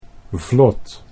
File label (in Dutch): "vlot", Dutch